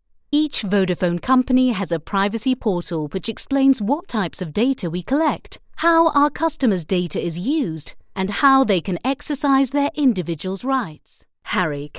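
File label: British English, E-Learning; GoLocalise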